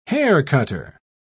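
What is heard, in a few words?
"haircutter", British English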